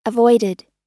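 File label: "avoided", US English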